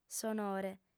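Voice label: "sonore", female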